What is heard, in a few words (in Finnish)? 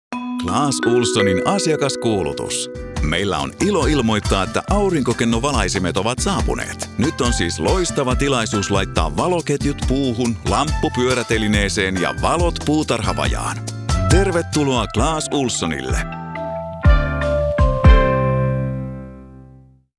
Instore